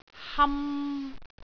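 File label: "ham3", Cantonese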